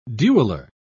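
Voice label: "dueller", British English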